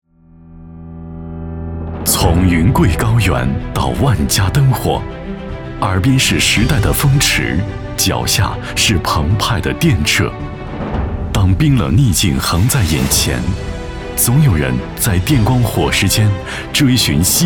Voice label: 男 宣传; 福泉公司

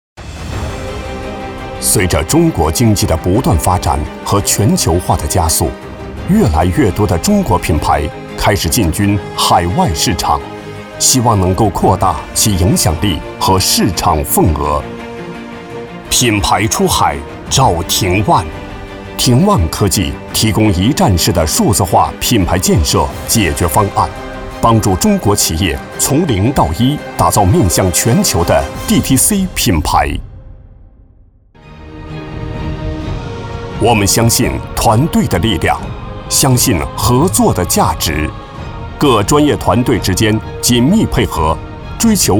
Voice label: 男 企宣

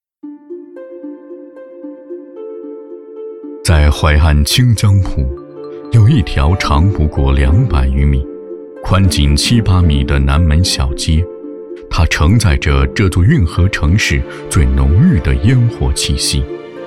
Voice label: 男 舌尖风格; 在淮安清江浦

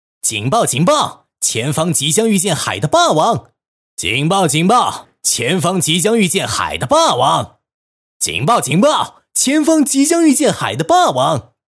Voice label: 男 游戏 语音内容

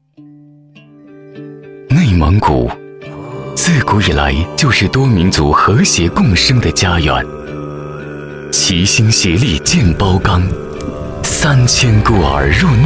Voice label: 男 党建专题; 内蒙古

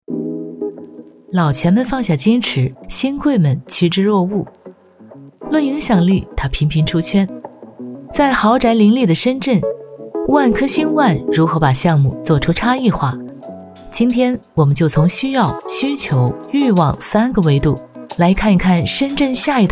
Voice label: 女 宣传片 房产解说; 万科

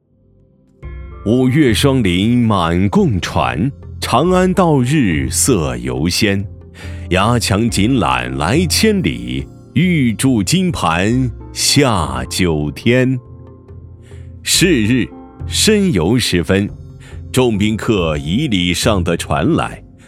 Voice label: 男 解说 旅游行程 古文讲述

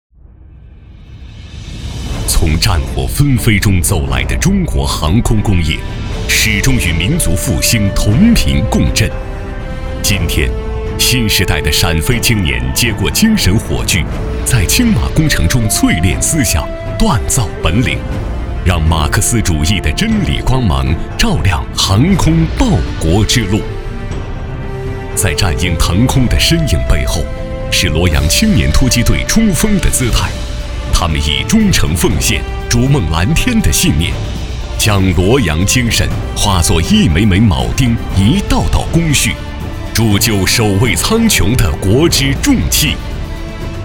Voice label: 男 专题; 陕飞青马

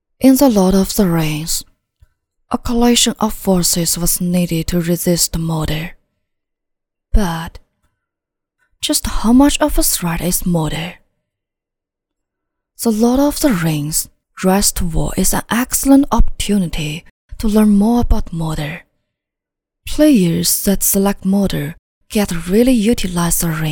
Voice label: 女 英 信息流

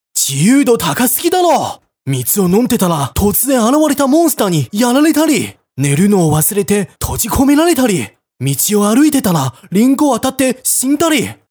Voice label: 男 日语信息流; 道を歩いて

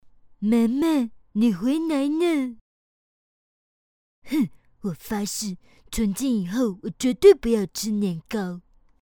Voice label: 女 其它 模仿; 蜡笔小新

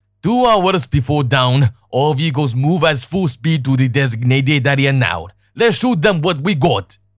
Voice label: 男 英文角色 装甲集群指挥官

